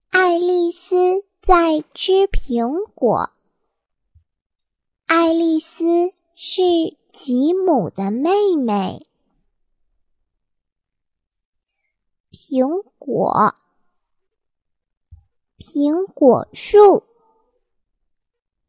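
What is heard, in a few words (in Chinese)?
女模仿 童声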